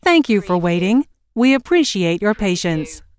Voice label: US female phone prompt Thank you for waiting - We appreciate your patience; lynne publishing